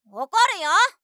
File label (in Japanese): "おこるよ？", 相づち・反応系【ネガティブ】; ASMR